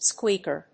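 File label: "squeaker", English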